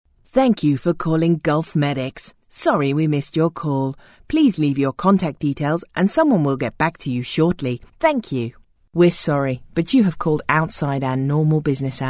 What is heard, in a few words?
Telephone IVR